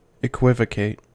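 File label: "equivocate", US English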